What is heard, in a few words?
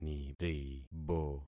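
"niribo", concatenated syllables; Affixing experiment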